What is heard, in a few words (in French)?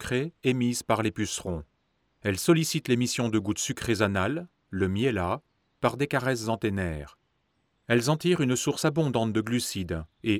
voix off docu fourmis